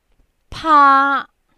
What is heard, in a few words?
"paa1", Cantonese